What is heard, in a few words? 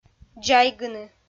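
"дзайгыны", Komi, female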